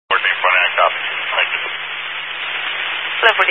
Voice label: Highway Patrol, Phoenix Metro West Area, scanner